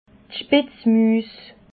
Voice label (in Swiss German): "spitzmuus", alsace